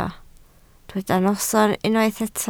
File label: "noson", Welsh